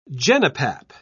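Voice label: "genipap", British English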